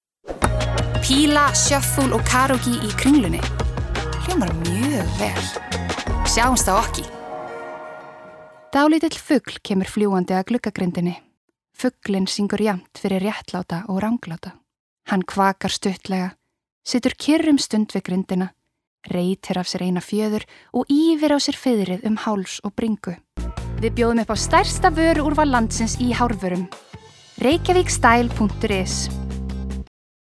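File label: Icelandic female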